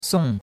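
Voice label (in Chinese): "song4" Chinese; Cours de Chinois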